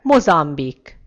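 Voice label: "mozambique", Hungarian; gcompris; geography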